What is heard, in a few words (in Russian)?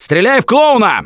see clowns, left4dead2 russian, Survivor Voice; Gambler